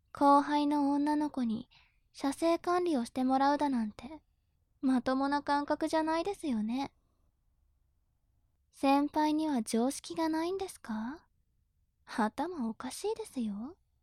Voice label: 調教中音声, ASMR

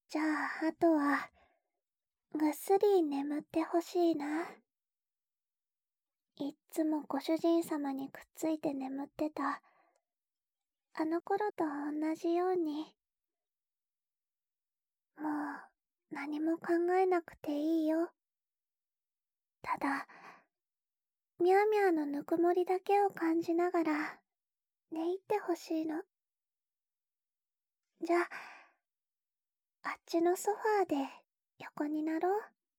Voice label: ソファーでおねんね添い寝 左耳メイン, 暖炉音なし

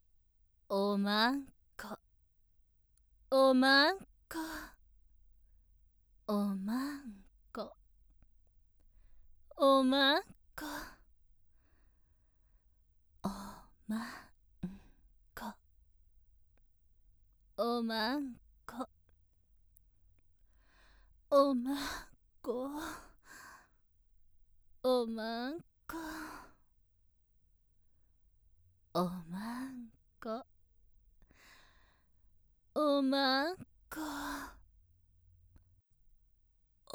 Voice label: 大人のお姉さん

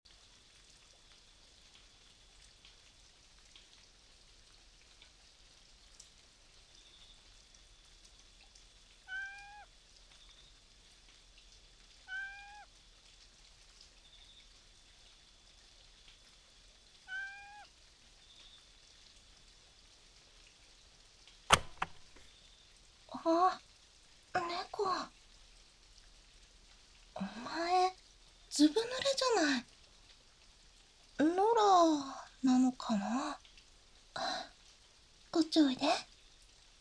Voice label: 女声版; ペトリコール

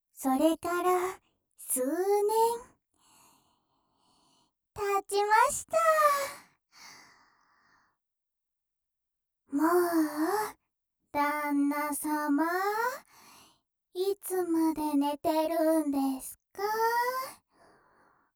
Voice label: モーニングコール, ASMR; モーニングコール２・親子どんぶり♀♂♀

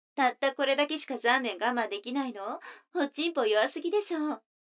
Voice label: 女の子台詞

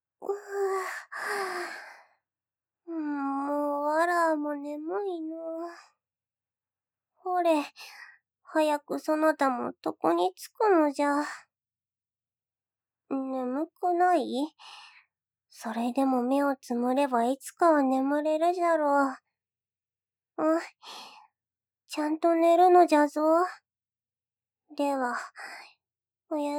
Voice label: 眠そうに, おやすみボイス

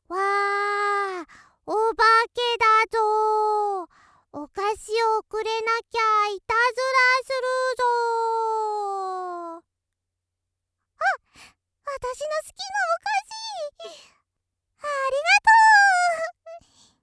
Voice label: ロリ ハロウィンだよ

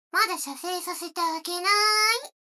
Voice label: 女の子 台詞, ASMR

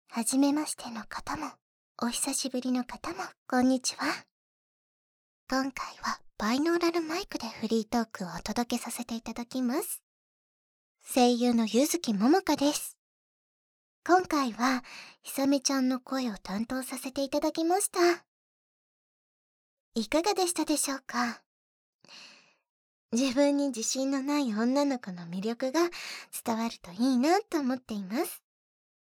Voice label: フリートーク

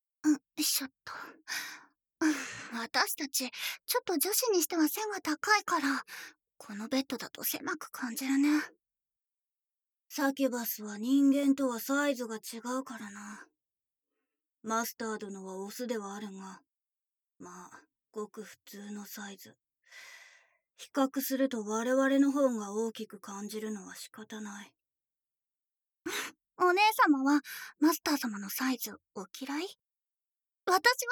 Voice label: 双子サキュバスのW催淫耳舐め, ASMR; 【悪魔儀式・耳舐め】

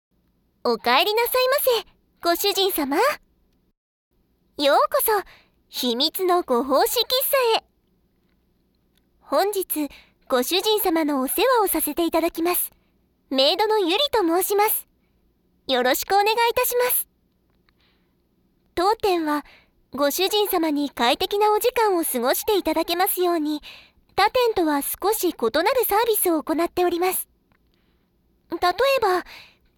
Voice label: ボイスのみ; 秘密のご奉仕喫茶～小悪魔メイドの搾精サービス～

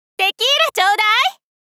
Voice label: テキーラ頂戴！！ 大人 OL 中音域

